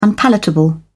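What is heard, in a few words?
"unpalatable", British English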